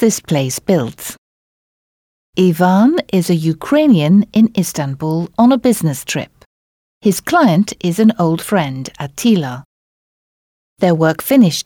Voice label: Dialogue, Turkish; Complete Turkish Teach Yourself